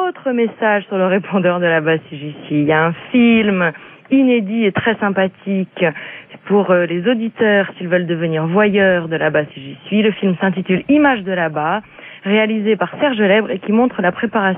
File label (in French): Forum social Beaumont repondeur